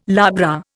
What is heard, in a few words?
"labra", Indian